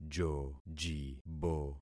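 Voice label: "jojibo", concatenated syllables; Affixing experiment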